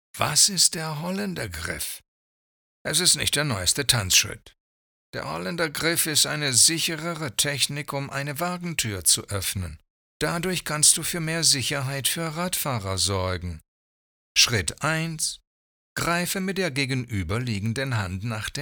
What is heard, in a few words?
GERMAN